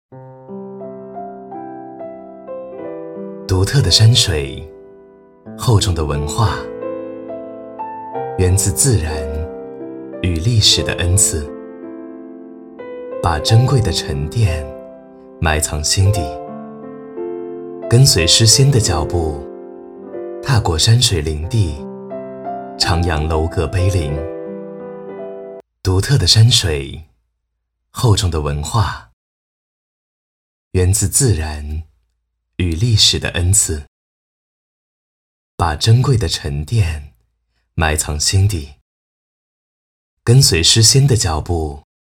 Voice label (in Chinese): 独白 讲述; 江东情